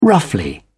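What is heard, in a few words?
"roughly", British English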